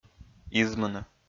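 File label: "измыны", Komi-Zyrian, male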